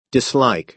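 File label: "dislike", American English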